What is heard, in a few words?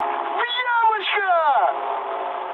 bomb success, mood high, speech ru; War Thunder